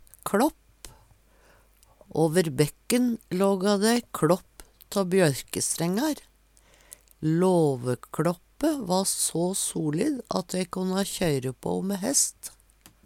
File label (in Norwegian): kLåpp, Numedalsmål